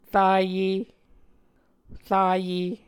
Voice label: "raye", Muscogee

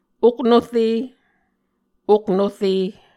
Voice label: "oknore", Muscogee

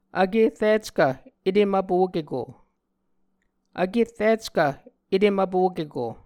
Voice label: "vkerrickv etem vpokeko", Muscogee

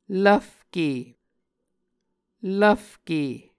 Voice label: "lvfke", Muscogee

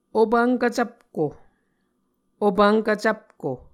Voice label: "opvnkv cvpko", Muscogee

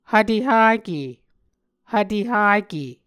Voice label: "hvtehake", Muscogee

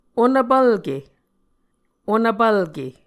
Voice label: "onvpvlke", Muscogee

